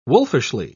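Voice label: "wolfishly", British English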